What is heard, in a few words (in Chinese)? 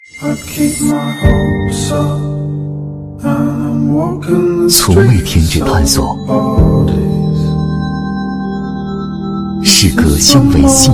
男 广告 品质感; 松下